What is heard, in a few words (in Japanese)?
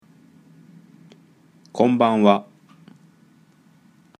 あいさつ, japonais; dictée de phrases 1 salutation